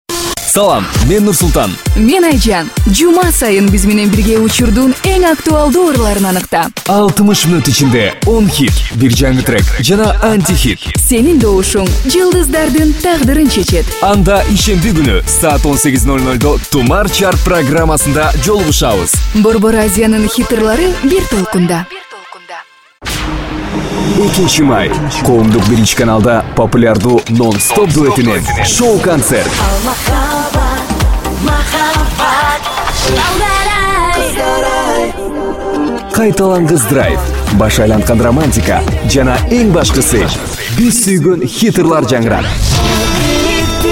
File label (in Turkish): Kirgizca Erkek, seslendirme